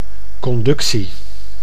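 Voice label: "conductie", Dutch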